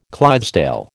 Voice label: "Clydesdale", American